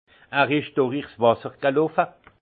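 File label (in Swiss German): "wasser", alsace Haut-Rhin; vin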